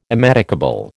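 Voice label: "immedicable", American English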